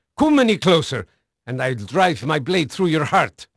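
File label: Dutch male, voice; Pirates Of The Caribbean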